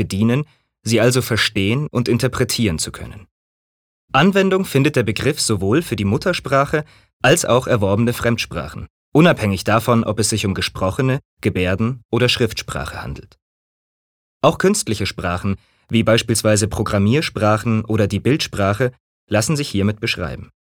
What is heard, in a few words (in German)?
Eloquenz Neutral